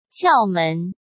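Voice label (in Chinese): "窍门", Mandarin; chinese-flashcards; hsk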